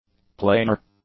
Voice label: "planar", english; adjectives